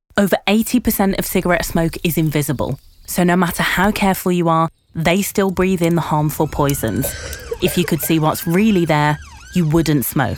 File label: VO Showreel, female voices